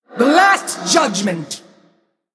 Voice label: Female Human Attack

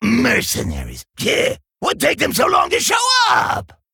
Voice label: Male Kobold Player Loss

